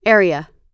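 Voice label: "area" US English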